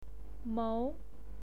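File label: "mou" second tone, pinyin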